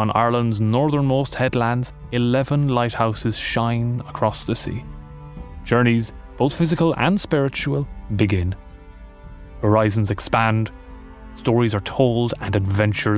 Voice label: male english irish accent narration compilation

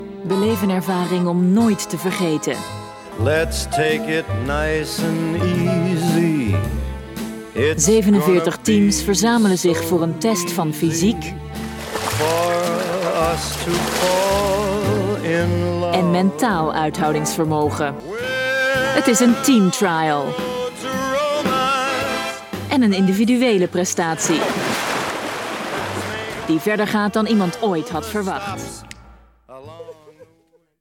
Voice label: female dutch commercial; discovery channel

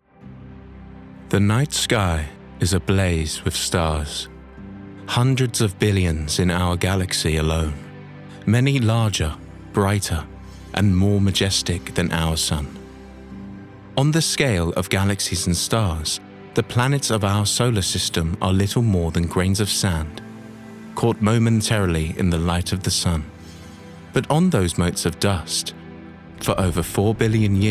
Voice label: male english documentary

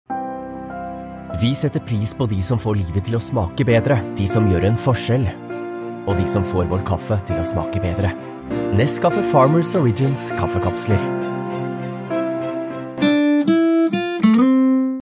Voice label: male norwegian commercial; nescafe

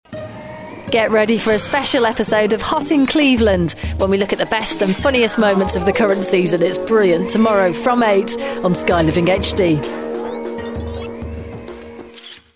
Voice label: female English continuity; voices; sky living hd

